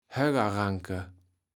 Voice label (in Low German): "Höggeranke", kaevels platt